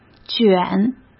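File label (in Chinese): "卷", Mandarin Chinese; chinese-flashcards; hsk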